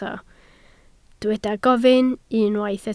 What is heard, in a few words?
"gofyn", Welsh